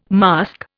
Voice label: "mask", Indian

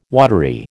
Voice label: "watery", American

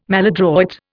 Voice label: "maladroit", Indian

